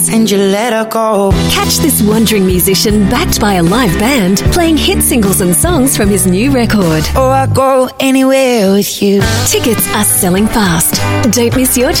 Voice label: AU MidAtlantic English Regional Council Passenger, Australian Female; Cairns